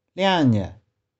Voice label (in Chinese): "liang2nih0", 玉環